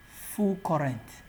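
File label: "full current", Nigerian Pidgin, male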